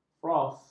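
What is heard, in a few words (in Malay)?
"fros", Malay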